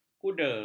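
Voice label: "kuda", Malay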